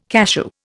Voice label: "cachou", Indian